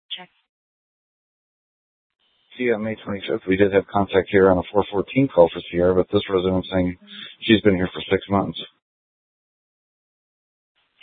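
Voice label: police department dispatch; Mesa PD; 3 Red Mnt Dispatch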